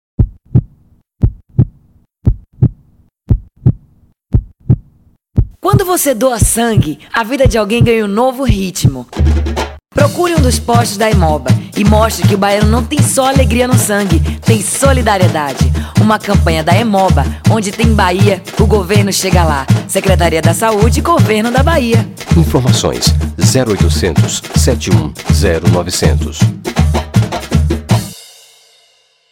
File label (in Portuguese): Spot; hemoba; novo ritmo